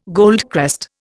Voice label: "goldcrest", Indian